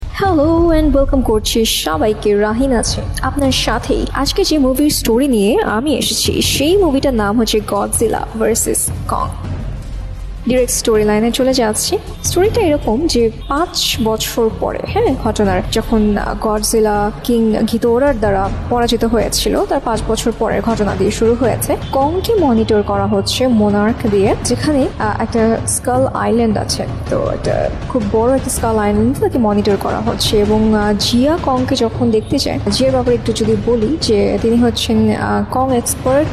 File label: movie explanation bangla; godzilla vs kong